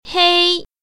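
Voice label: "hei1", Mandarin Chinese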